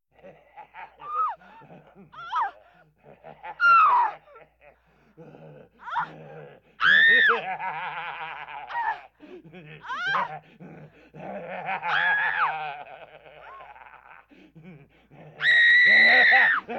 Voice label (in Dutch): Man Terroriseert Vrouw, horror effects; KMP Film Video Sound Effects